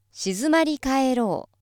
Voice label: female